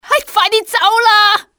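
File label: run, civ hk female; XBOX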